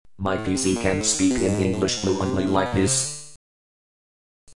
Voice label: robot, synthesizer